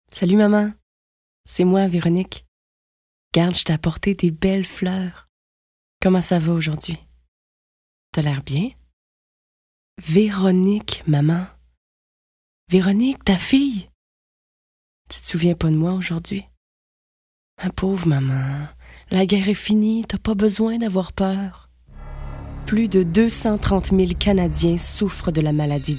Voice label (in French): voix femmes; alzheimer